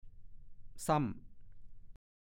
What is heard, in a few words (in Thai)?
"ซ่ำ", ภาษาโคราช; 1. ใช้ประกอบคำอื่นเพื่อให้มีน้ำหนักยิ่งขึ้น